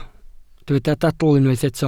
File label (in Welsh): "dadl" Welsh